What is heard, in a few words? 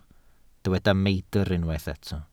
"meidr", Welsh